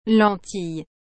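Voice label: "lentille", French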